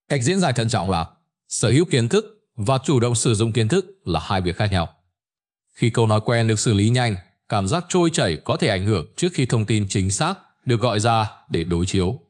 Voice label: narration